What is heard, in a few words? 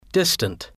"distant", English